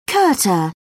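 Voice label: "kurta", British English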